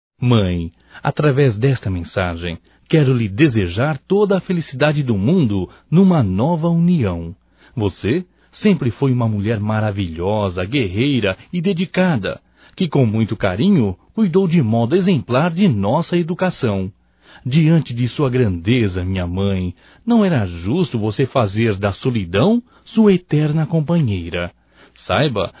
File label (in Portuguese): PARABENS PELO CASAMENTO DA Mãe (M); Casamentos